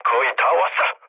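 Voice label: Korean near waypoint mood low; War Thunder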